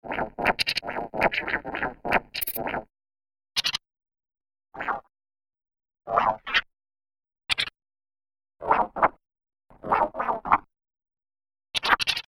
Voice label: alien